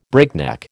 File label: "breakneck", American